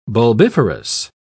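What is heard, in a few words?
"bulbiferous", British English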